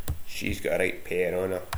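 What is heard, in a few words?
"perr", slang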